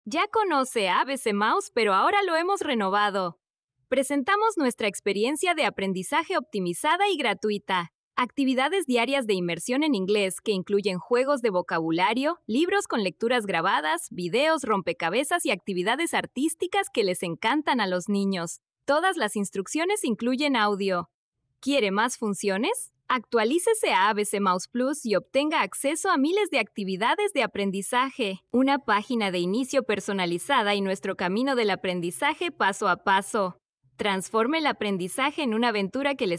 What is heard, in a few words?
spanish; age of learning; abc mouse